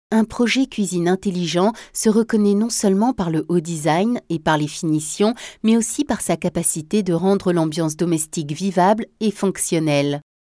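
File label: French, narration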